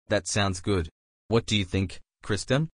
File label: male